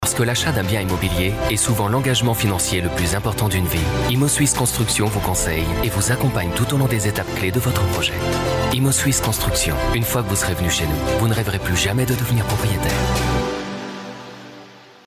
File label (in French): voix off pub cinema internet; isc